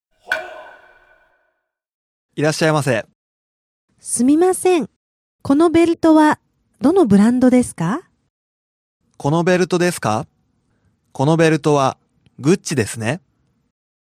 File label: dialog; JapanesePod101; Beginner Season 1